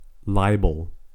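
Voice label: "libel", American English; pronunciations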